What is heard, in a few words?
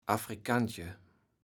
"Afrikantje", platt